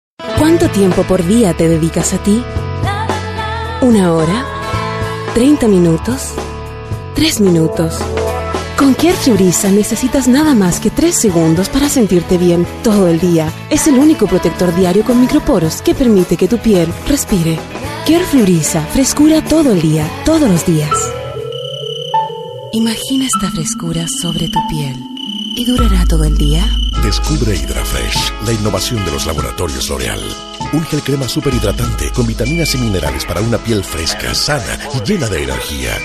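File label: latam female commercial; voice demos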